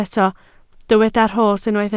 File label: "rhos", Welsh